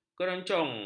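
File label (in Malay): "keroncong", Malay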